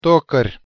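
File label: "tokar", Tatar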